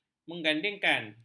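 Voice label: "menggandingkan", Malay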